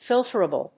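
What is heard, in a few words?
"filterable", English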